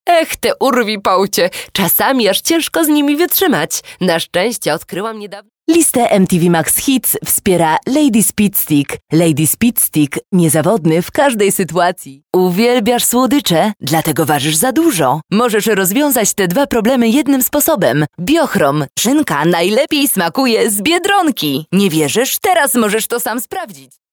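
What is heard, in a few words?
Polish commercial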